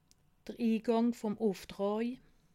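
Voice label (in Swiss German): "ingang", Alsatian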